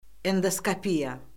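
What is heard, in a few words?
"endoskopiya", Azerbaijani; Orfoqrafiya Lüğəti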